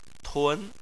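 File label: "tun", Chinese, second tone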